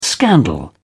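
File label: "scandal", British English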